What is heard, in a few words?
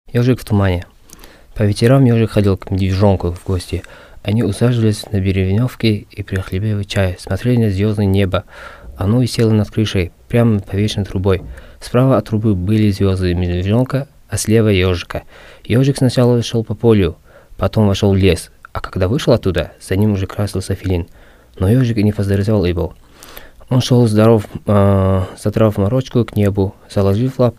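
Russian, male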